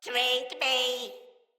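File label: See Player Corrupted Sprouts, en; Dialog; Battle Barks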